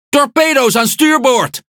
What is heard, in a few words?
NL Speech Alarms Torpedo Right; World Of Warships